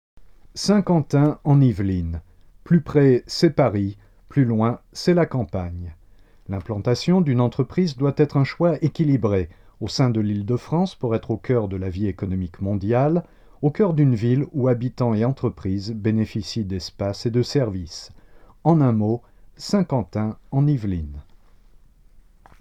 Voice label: promotion

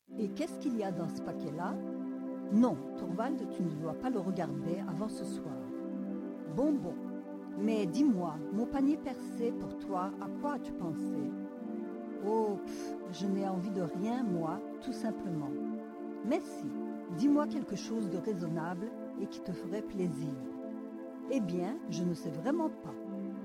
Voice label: story

